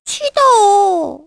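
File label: Vox Deny, Korean; Hanus; kingsraid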